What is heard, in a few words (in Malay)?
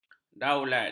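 "daulat", Malay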